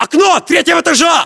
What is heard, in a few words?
location third floor window, english; localized english